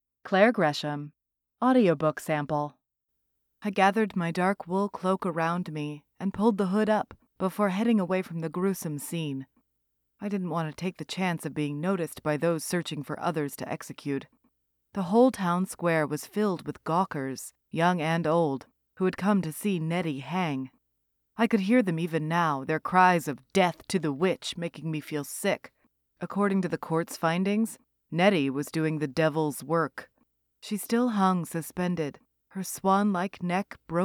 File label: YA Audibook